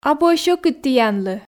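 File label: "абу-ӧ сьӧкыд тіянлы", Komi, female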